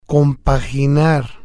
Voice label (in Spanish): "compaginar", Spanish